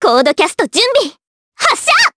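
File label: Vox Skill, voices, Japanese; kingsraid; voices heroes jp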